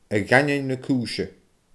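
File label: "a dhaindeoin na cuuise", Scottish Gaelic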